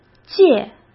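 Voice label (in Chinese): "界", Mandarin Chinese; hsk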